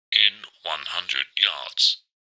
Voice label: technology sat nav male voice english says "in 100 yards"